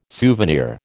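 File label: "souvenir", American English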